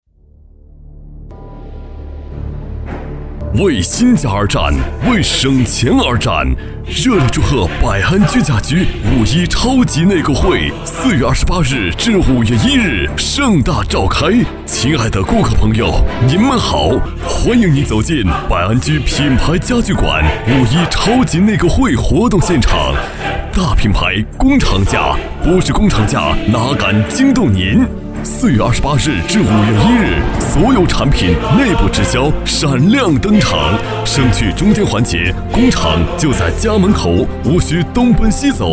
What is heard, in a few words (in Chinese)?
激情力度; 百安居